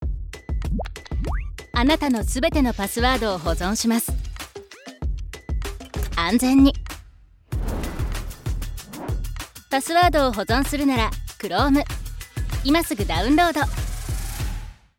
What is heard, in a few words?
female japanese commercial; Devine Voices